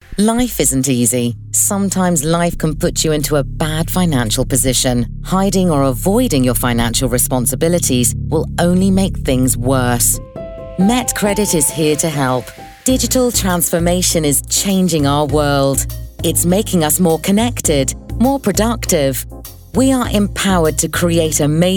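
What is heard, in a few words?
English UK, Corporate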